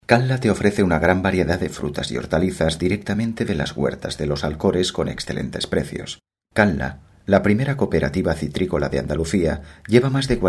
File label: locutor spanish voiceover